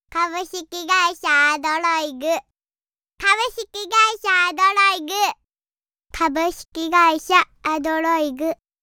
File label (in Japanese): 子役ナレーター。2020年生まれ、現在5歳。元気いっぱいの愛らしい声